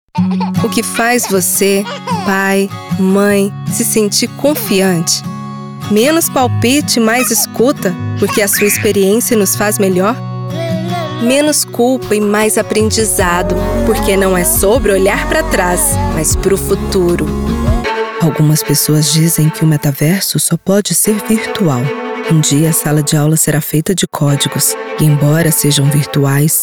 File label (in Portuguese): Comercial